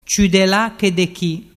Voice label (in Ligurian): "ciudelachedechi", dialettu